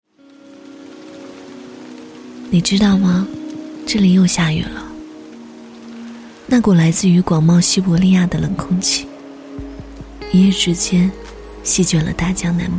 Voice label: 女

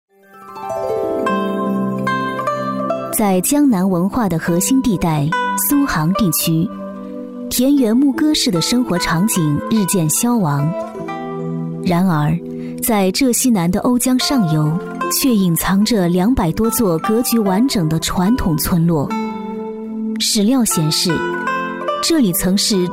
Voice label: 女 专题解说 古典优雅; 江南

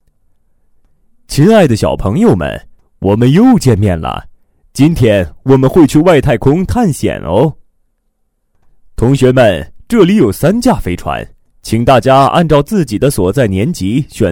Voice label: 男53 老年角色外太空探险亲切自然

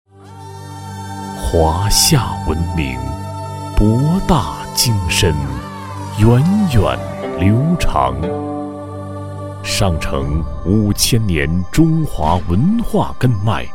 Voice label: 男 大气舒缓感情; 博学书院

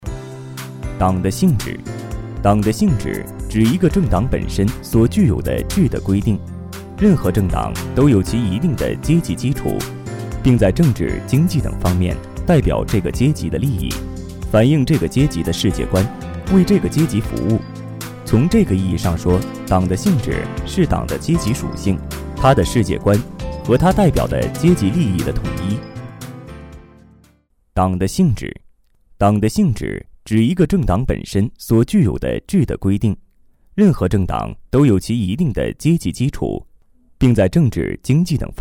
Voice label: 男 党的性质（正式）

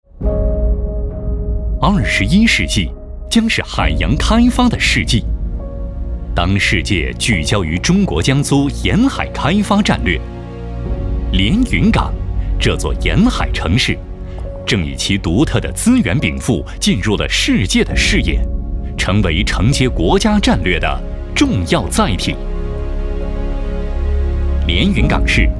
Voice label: 男 大气; 连云港; 温情旁白

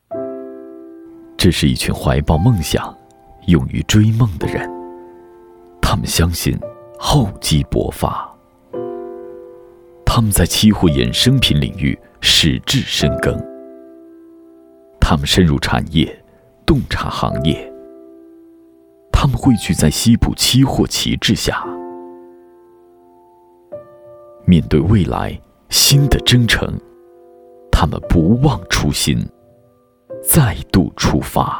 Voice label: 男 商业广告 情怀感动风格

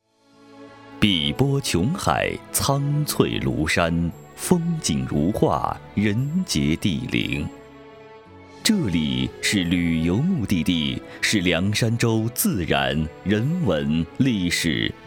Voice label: 男 庐山（年轻）

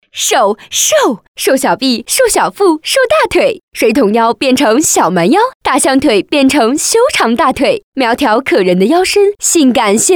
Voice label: 女; 轻量减肥胶囊

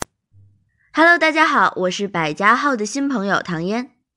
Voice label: 女 模仿; 模仿唐嫣

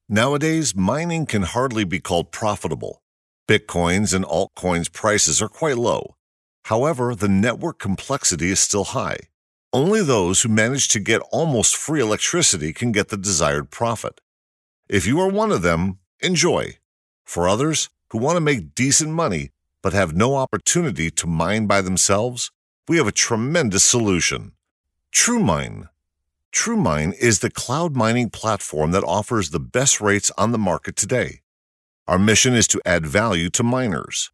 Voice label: 外籍男 企业专题

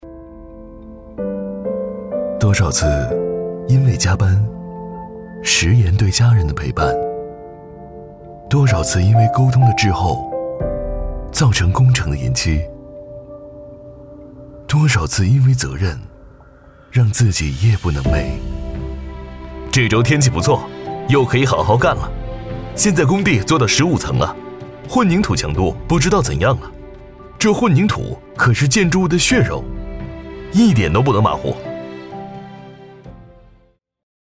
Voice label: 男 自述 深情

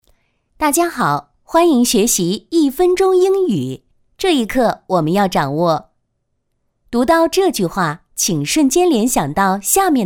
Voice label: 女 课程介绍

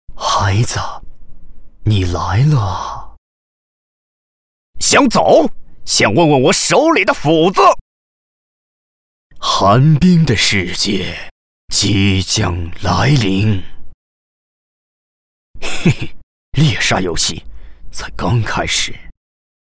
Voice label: 男 游戏样音

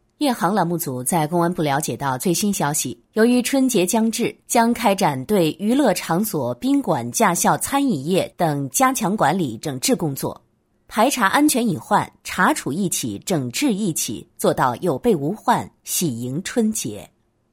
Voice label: 女 新闻; 夜航

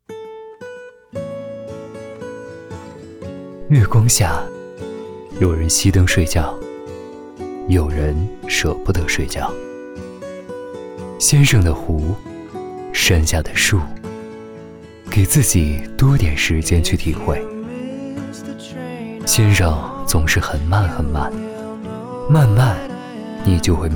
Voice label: 男78 温情旁白

